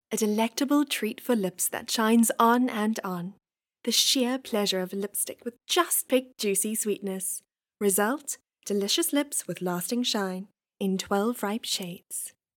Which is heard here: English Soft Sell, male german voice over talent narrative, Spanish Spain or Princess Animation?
English Soft Sell